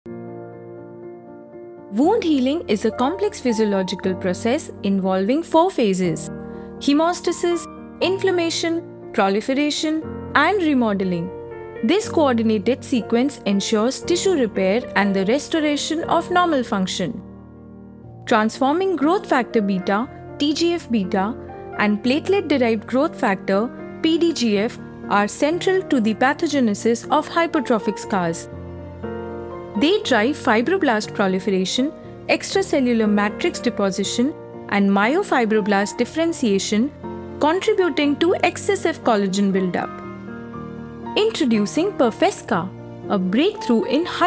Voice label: Eng, Professional Hindi and English Female Voice Over Artist; Cipla Pirfesca